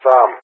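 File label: "SAM", English, GREECE; Target; Allied Flight; DCS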